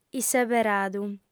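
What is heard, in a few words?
"isseberadu", female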